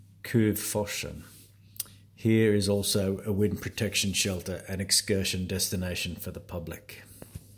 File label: English voice; Kuvforsen; niemiholm; Råne älv